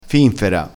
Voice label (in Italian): "finfera", vocabolario dialettale